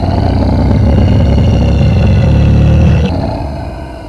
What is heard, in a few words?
hunter angry; ministrider; npc